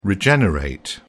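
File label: "regenerate", British English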